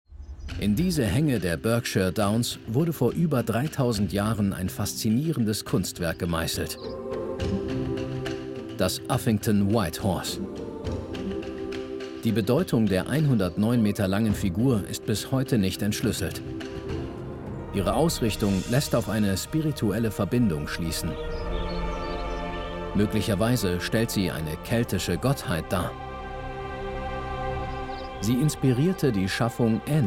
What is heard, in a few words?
South England